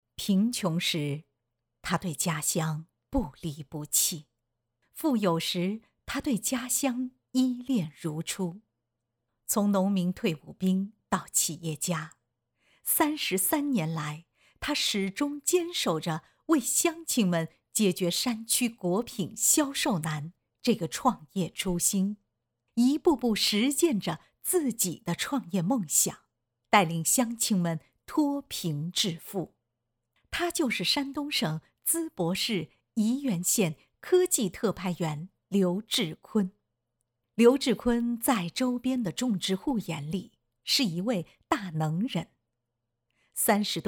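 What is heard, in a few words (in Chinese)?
纪录片试音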